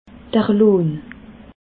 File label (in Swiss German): "lohn", alsace